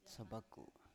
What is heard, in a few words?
"sabaku", Biak